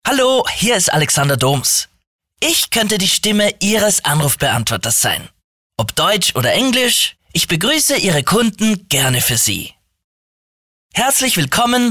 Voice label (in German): Telefonansagen